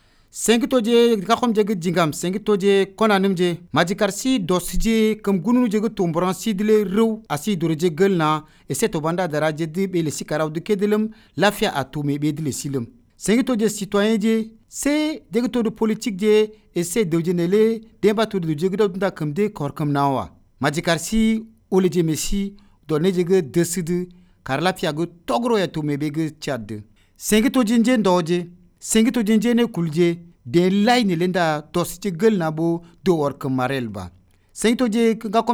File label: Ngambai Message